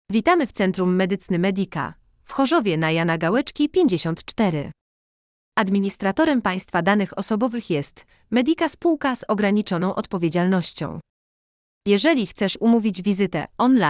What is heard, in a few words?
IVR